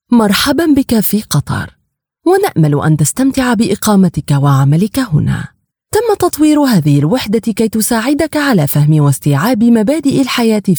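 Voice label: Arabic E-learning Female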